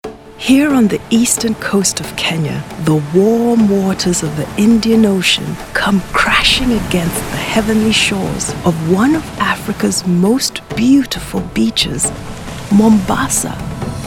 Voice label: narration